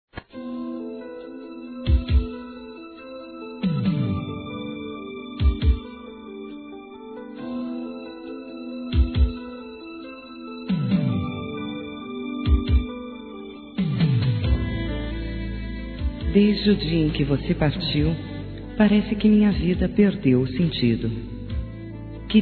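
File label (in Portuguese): SAUDADES, Feminino; Telemensagens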